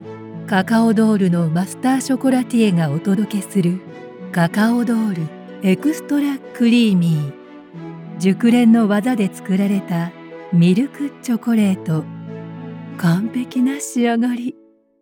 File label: narration woman